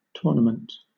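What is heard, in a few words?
"tournament", British English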